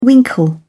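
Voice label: "winkle", British English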